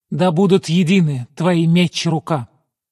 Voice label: yes, villager; mob; Minecraft; Votive World